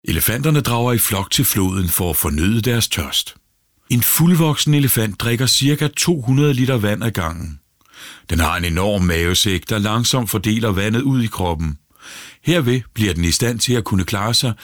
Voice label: Fiktiv Dokumentar

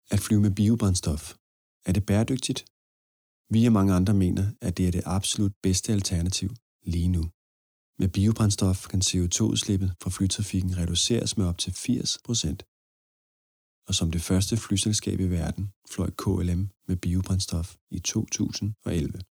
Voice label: Radio Reklame; KLM

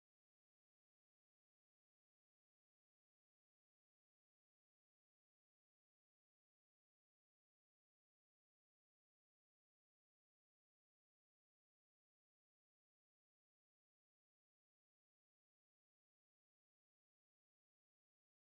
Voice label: Næstved Hudplejeklinik Profilspot

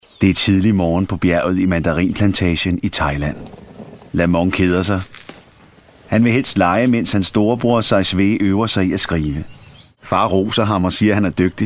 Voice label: Dokumentar

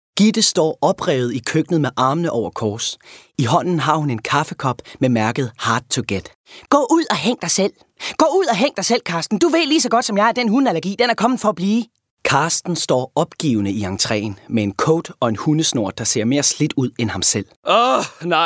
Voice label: Lydbog

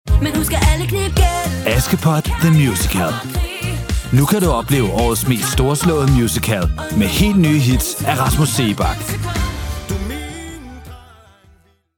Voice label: reklame

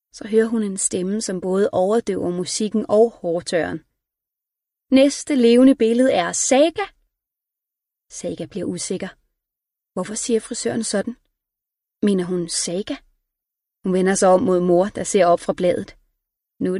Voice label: Lydbog

